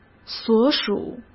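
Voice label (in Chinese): "所属", Mandarin Chinese; hsk; chinese-flashcards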